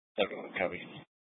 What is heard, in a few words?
Dispatch, scanner; Mesa PD Dpt; 4 Sprstn Dispatch